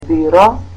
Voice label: "desk", Macedonian